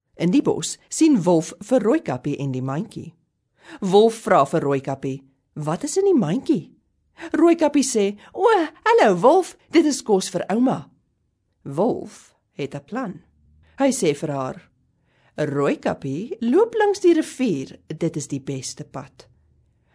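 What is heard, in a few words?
Afrikaans, female, kids narration; voice over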